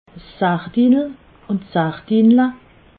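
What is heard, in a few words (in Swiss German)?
"sardinel", Alsatian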